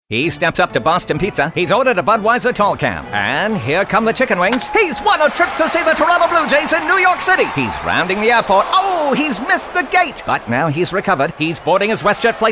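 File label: 1940s SPORTS ANNOUNCER voiceover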